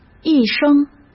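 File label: "一生", Mandarin Chinese; chinese-flashcards; hsk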